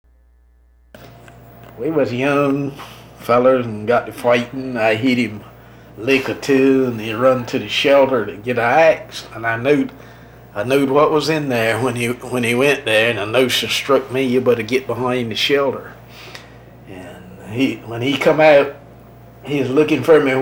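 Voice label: older African American Hyde County, Tar Heel dialects; North Carolina; quiz voices of north carolina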